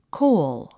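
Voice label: "coal", English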